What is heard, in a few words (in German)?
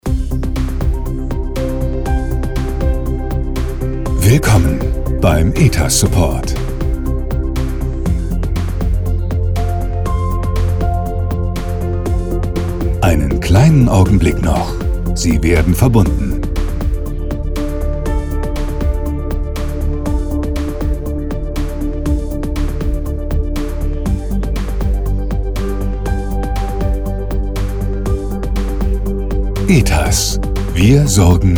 Warteschleife; denzel washington spricht telefonansagen fuer etas